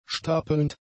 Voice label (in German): "stapelnd", German; adjectives